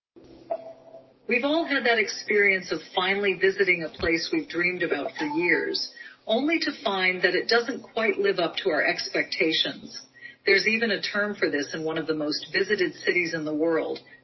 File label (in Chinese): 英语日语切换; We've all had that experience of finally visiting a place we've dreamed about for y